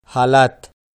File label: "halaat", Pashto